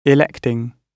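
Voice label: "electing", British English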